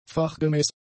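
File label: "fachgemäß", German; adjectives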